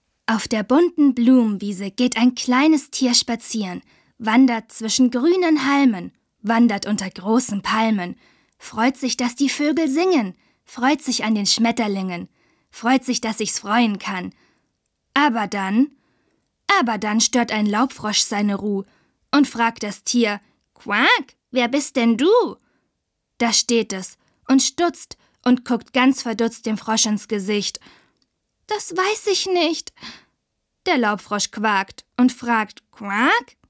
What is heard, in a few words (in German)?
Hörbuch, frauen; Ich bin ich